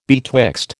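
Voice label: "betwixt", American English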